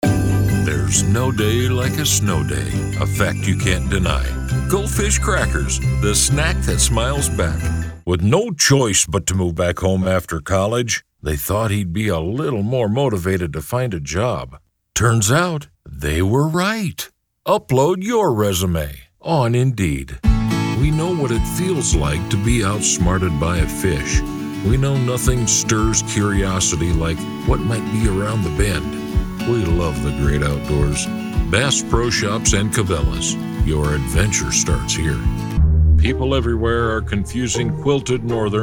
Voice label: Commercial